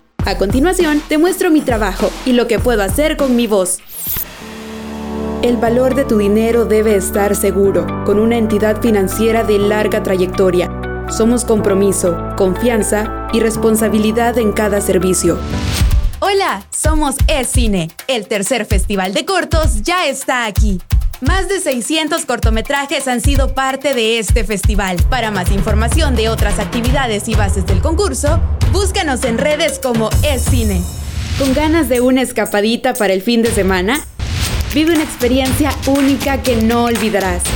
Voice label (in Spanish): voz marketing